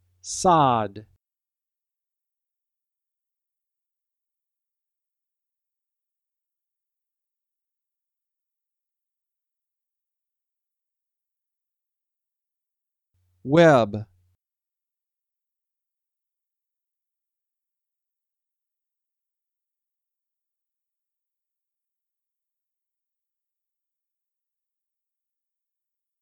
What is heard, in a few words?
Short Vowel; All We Can Read